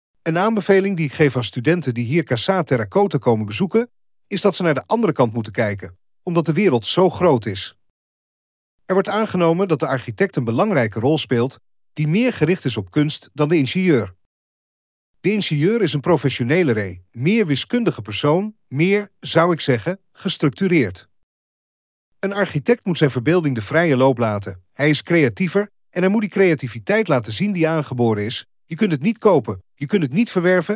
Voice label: DUTCH; Casa Terracota